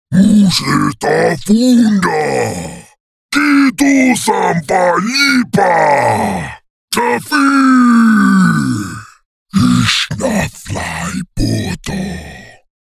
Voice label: Big Bad Alien Orc Speak